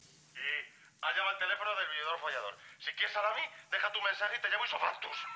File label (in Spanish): Buzon De Voz